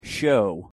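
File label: "show", English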